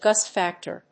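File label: "gust factor", English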